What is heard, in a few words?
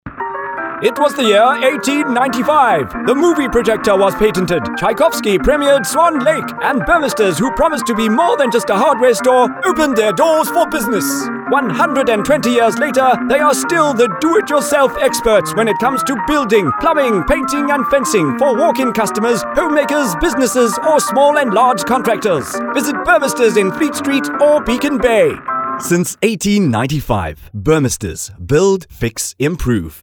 Radio AD